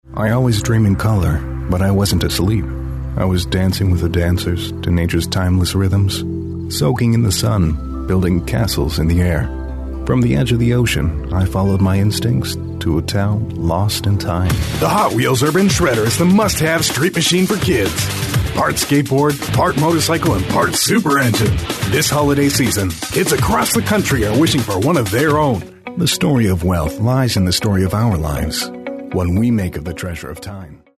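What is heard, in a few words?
English US male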